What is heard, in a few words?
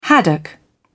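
"haddock", British English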